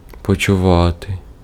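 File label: Ukrainian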